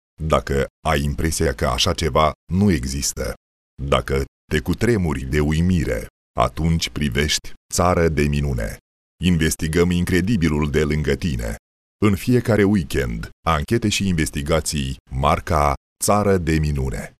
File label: Moldavian male